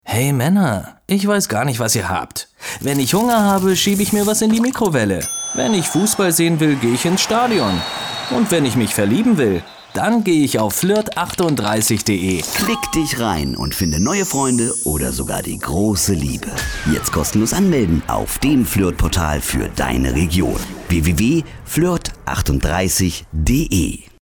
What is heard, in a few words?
Male Version